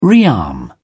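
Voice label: "rearm", British English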